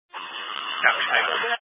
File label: Incident Tac 2, Scanner audio recording